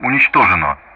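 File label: Speech Sound Russian; Common Player destroyed; DCS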